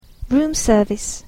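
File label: "room service", English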